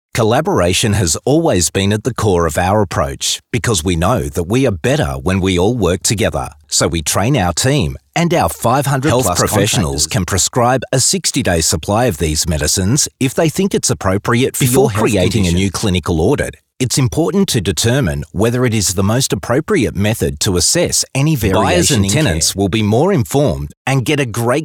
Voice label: Narration